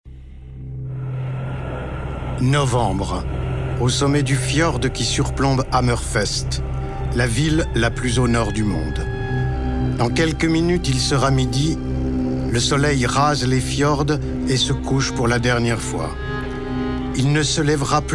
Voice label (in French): Documentaire; Hammerfest